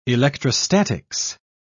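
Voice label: "electrostatics", British English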